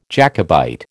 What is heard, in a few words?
"Jacobite", American